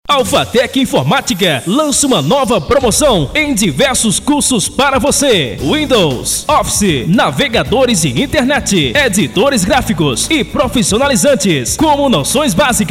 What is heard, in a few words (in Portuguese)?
offs locucao